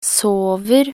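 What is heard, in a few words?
"sover", Norwegian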